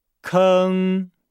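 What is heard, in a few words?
"keng", Chinese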